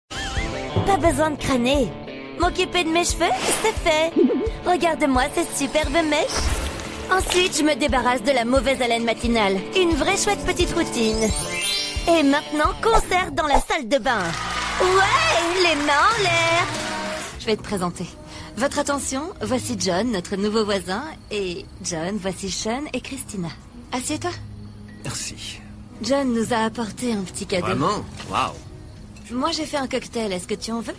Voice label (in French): comedie